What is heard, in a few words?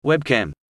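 "webcam", English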